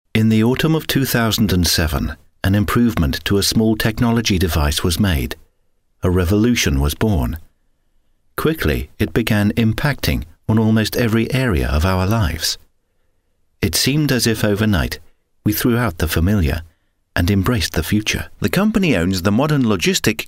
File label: Narration